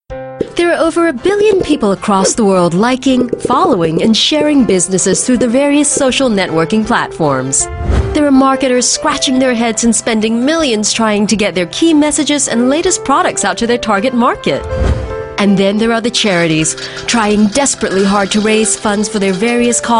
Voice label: Asian English; Social Network